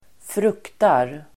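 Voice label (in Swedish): "fruktar", Swedish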